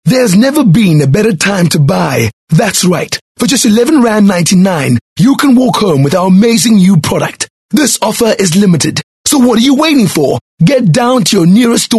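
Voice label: English Hardsell South African Johannesburg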